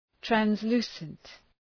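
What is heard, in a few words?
"translucent", English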